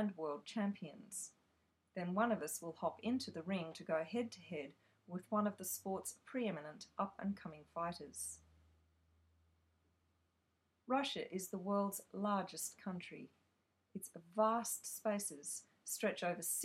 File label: sambo russian martial arts documentary vo; voice acting; voice over